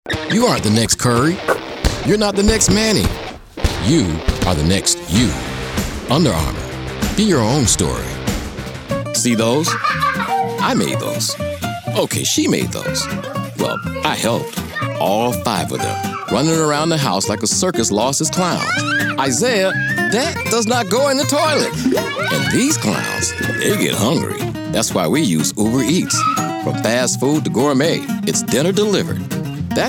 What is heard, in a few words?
Commercial